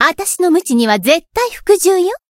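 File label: variable chant, Japanese; sherinu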